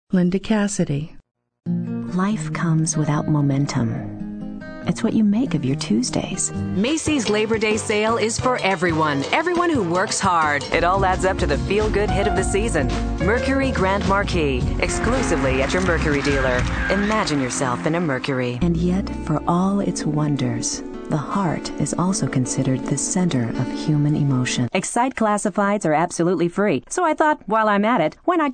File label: female voice